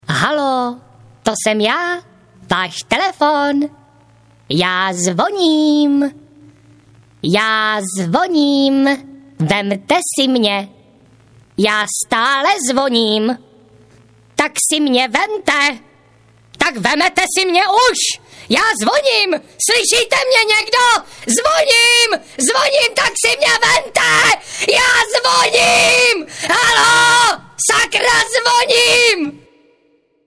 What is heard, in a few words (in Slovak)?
zvonenie hlásky cz